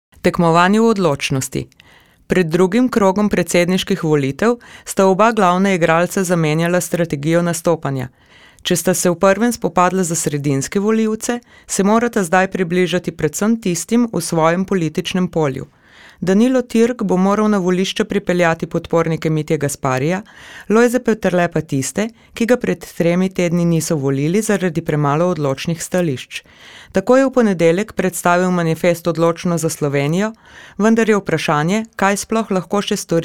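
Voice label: slovenian female narration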